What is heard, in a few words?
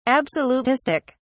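"absolutistic", British English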